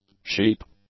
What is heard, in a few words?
"sheep", English; nouns